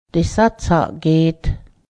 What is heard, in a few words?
bend, Cayuga